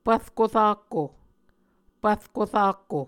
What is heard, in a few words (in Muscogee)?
"pvrko rakko", Muscogee; Mvskoke Words with Repeated Consonants; Onvkv Ohhvyayices